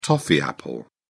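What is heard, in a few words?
"toffee apple", British English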